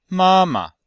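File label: "māma", Chinese; Cours de Chinois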